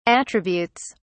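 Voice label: "attributes", British English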